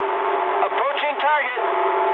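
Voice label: English near waypoint mood medium; War Thunder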